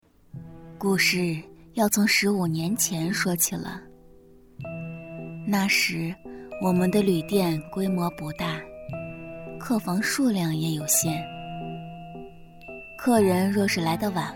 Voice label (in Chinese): 讲鬼故事中年女声